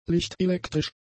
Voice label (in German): "lichtelektrisch", German; adjectives